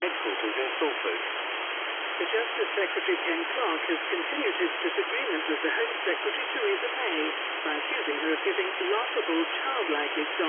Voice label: Voice Noise Filt; comlinks